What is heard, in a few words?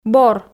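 "бор", Komi, female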